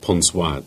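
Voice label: "Wadebridge" Cornish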